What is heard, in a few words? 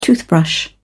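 "toothbrush", British English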